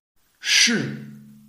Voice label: "shi4", Chinese

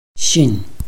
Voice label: "xin4", Chinese

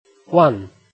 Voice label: "wan4", Chinese

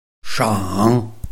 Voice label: "shang3", Chinese

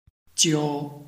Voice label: "jiu1", Chinese

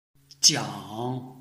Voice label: "jiang3", Chinese

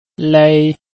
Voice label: "lei1", Chinese

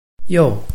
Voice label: "you4", Chinese

